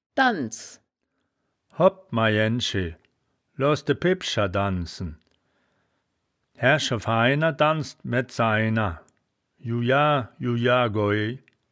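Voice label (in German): "danz", wittlicher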